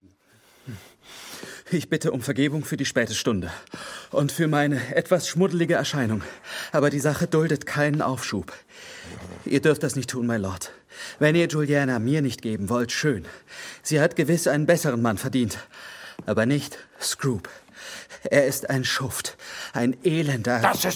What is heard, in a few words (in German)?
Hoerspiel